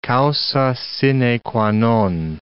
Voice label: "causa sine qua non", English

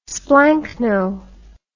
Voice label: "splanchno", English

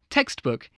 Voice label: "textbook", English, voice

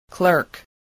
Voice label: "clerk", voice

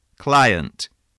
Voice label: "client", voice

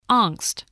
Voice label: "angst", spelling

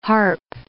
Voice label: "harp", English

